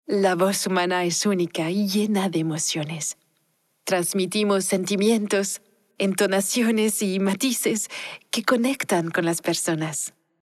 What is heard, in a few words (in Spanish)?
VO Spanish Moderate French Accent